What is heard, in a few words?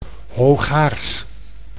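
"hoogaars", Dutch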